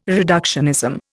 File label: "reductionism", Indian